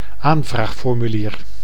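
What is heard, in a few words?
"aanvraagformulier", Dutch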